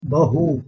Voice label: "bahu", Urdu

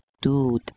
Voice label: "dud", Persian

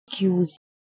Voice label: "keus", Proto Germanic

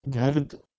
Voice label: "gherdh" PIE

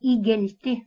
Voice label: "igelti", Lithuanian

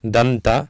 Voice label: "danta", Sanskrit

